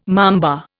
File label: "mamba", Indian